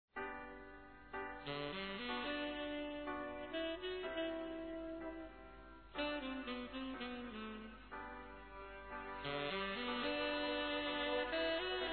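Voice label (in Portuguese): Masculino, Telemensagens Aniversários; PADRINHO; Telemensagens 2017; Aniv Familiar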